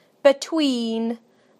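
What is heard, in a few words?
"between", British English; PictureDictionary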